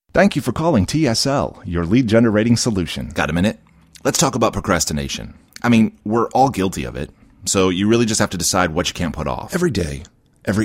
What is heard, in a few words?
Commercial